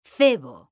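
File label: "cebo", Spanish, female; mekanta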